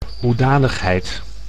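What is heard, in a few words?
"hoedanigheid", Dutch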